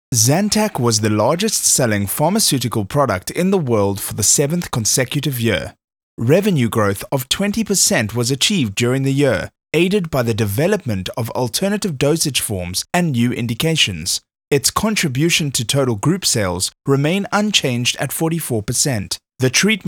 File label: Corporate Read